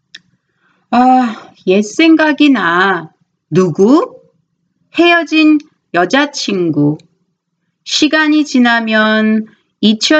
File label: Conversation